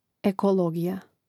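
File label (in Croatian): "ekologija", hrvatski; mreznik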